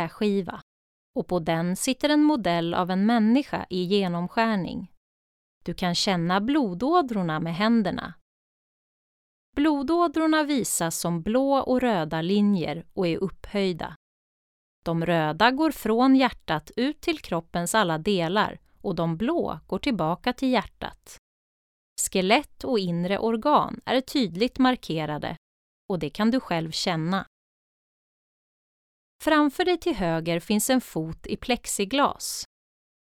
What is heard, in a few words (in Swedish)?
syntolkning; Station 7